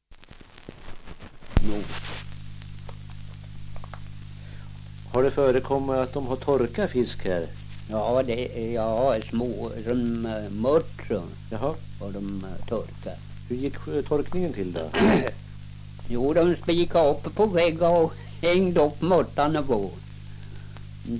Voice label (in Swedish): dialekter; Folkminnen